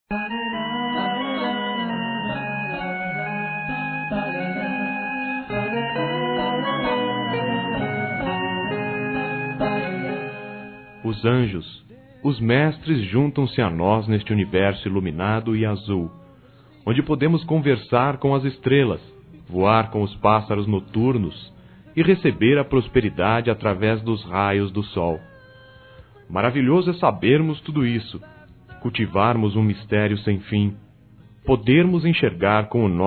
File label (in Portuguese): AMIZADE MISTICA M, Telemensagens